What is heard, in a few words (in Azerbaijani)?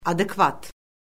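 "adekvat", Azerbaijani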